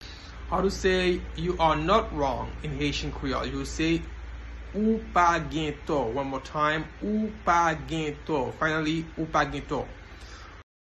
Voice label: You are not wrong in Haitian Creole Ou pa gen to pronunciation by a Haitian teacher